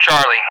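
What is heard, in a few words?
"Charlie", English; Alphabet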